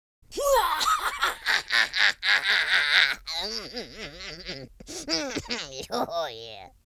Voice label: happy, Horror